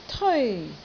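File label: "teoi1", Cantonese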